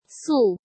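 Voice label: "Sù", Chinese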